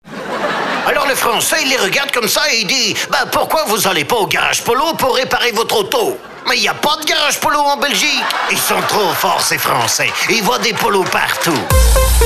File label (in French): radio pub spot